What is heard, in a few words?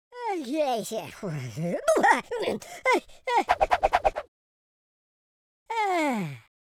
idle, speech, Madchester